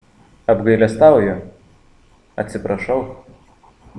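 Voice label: "Sorry", Lithuanian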